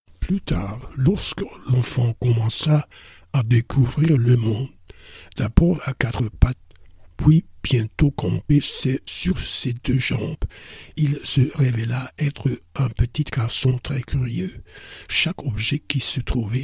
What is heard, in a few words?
French, Pronunciation